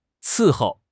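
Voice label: "伺候", Mandarin Chinese; HSK; HSK6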